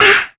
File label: Down, kr; Mirianne; kingsraid